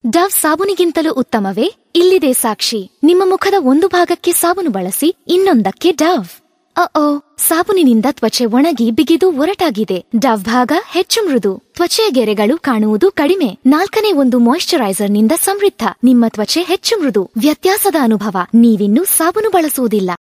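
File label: Kannada TV commercial Clean voice over, Female; India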